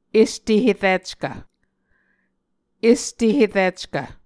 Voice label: "este herickv", Muscogee